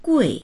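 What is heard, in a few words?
"gui4", Mandarin Chinese; 贵; 高い（値段が）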